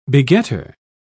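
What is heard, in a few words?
"begetter", en, uk British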